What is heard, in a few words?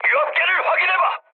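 Korean damaged oil mood high, speech; War Thunder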